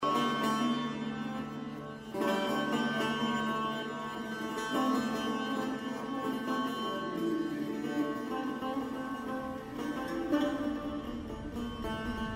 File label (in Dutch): duits; MOTEL TEXEL